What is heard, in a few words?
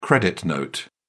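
"credit note", British English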